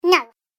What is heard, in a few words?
Little Elf Says "No", cartoon voice